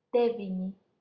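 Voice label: "devini" Latvian, female, 9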